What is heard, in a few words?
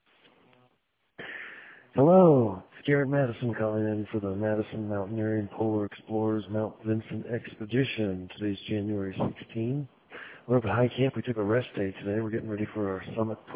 voicemail